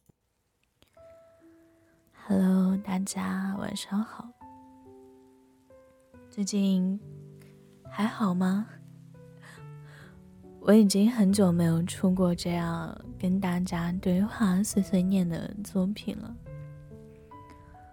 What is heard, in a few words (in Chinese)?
电台哄睡 碎碎念+念诗